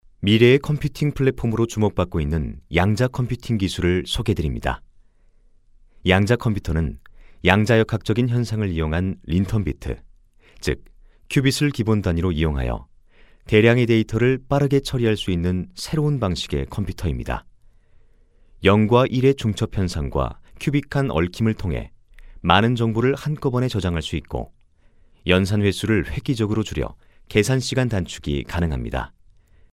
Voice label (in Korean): 전문성 있는 스마트한; 양자컴퓨팅